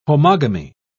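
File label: "homogamy", British English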